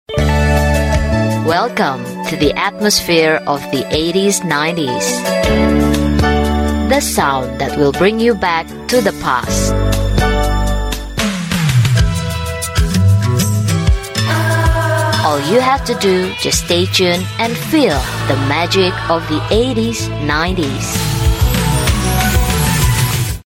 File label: Bahasa Indonesia Radio Show Opening